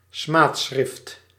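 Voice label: "smaadschrift", Dutch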